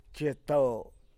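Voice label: "cheto", San Lucas Quiaviní Zapotec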